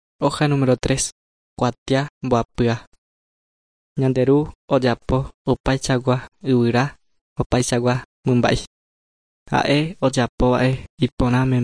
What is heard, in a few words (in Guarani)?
guarani mbya; good news and words of life; bible